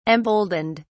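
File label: "emboldened", British English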